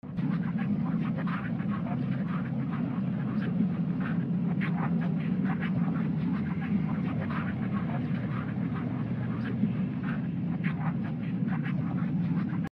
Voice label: whispers; slap battles

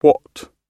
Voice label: "what" dictionary sound effect; google

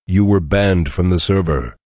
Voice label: ban male; teamspeak

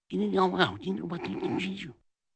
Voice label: indiscernible whispering

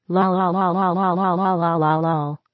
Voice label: lolololol female

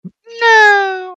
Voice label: dragon naaaaooooo

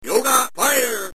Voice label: yoga fire sound effect; sfm; street fighter

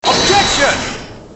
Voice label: english objection; edgeworth

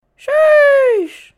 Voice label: sheesh sound effect

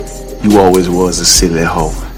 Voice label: silly ho loud; sam hyde

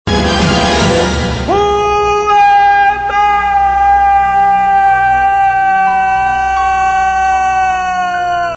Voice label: yelling who am i; jackie chan

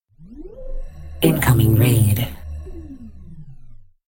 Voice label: incoming raid female informative; spark

